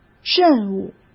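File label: "任务", Mandarin Chinese; chinese-flashcards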